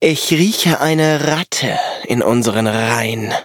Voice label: defeat, de; Cookie Run